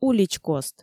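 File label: "уличкост" Komi, female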